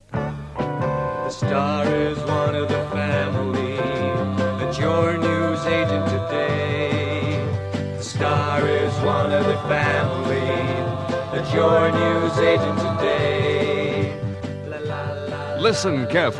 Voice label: Radio Advert; Radio Hallam; The Star - Money Savng Tokens